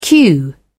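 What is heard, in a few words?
"cue", British English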